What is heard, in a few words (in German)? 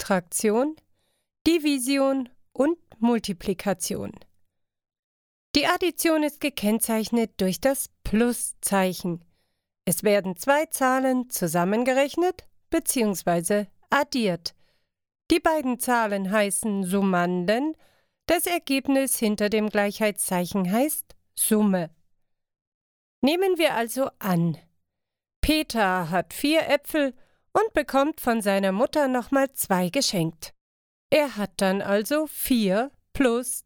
elearning Mathe